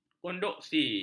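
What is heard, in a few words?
"konduksi", Malay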